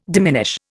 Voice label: "diminish", Indian